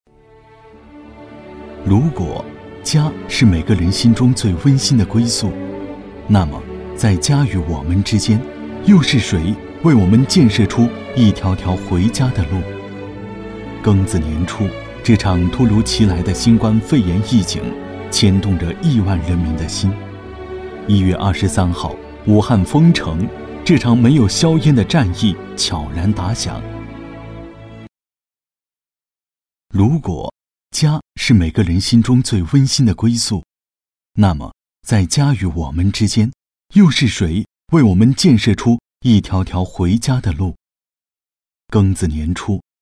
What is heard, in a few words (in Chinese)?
纪录片